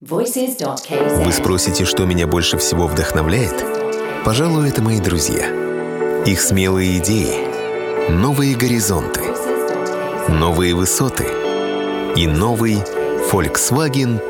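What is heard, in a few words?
russian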